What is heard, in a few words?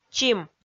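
"чим", Komi, female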